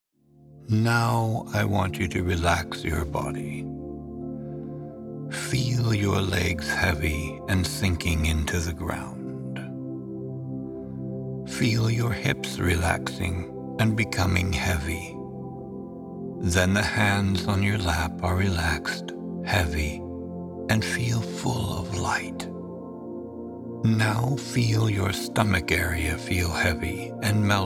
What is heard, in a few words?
Meditation